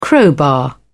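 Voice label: "crowbar", British English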